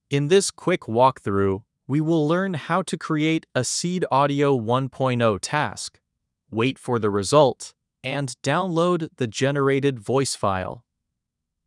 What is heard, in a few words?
tutorial voiceover; Seed Audio 1.0 API; how to use seed audio 1.0 api